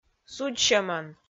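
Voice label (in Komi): "судзсьӧмӧн", Komi, female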